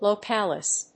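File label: "localise", English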